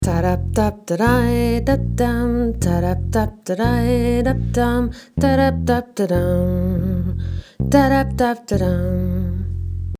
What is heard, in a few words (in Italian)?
voce bass